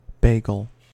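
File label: "bagel" US English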